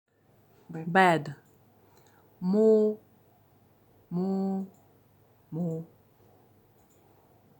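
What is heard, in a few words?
"Bad", Buryat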